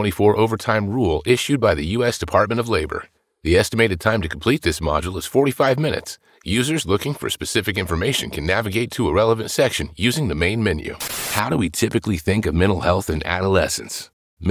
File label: E Learning